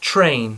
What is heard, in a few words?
"train", English; hracky